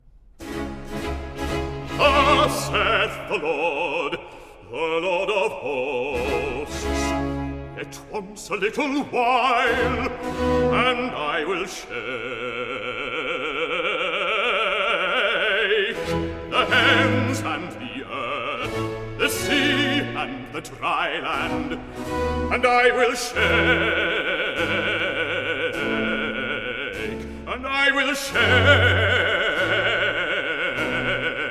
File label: recit; thus saith the lord; Handel Messiah